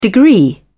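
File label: "degree", British English, dictionary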